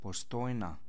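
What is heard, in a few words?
"Postojna", Slovene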